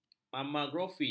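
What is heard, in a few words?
"mamografi", Malay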